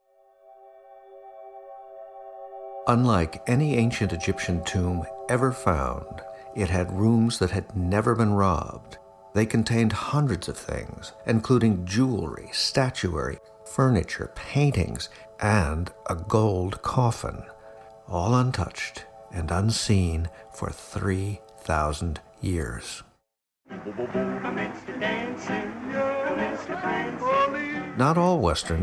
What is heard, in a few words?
Documentary